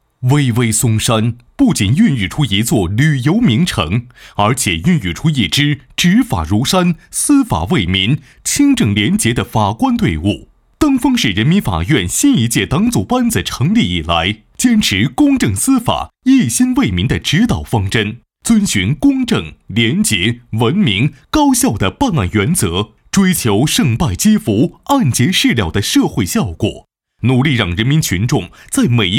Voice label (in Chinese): 男 国 大音 专题片 试音; 登封市法院